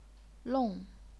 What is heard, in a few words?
"long4", chinese pinyin